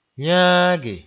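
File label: "yãage", Mossi; accompany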